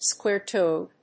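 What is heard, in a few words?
"square-toed", English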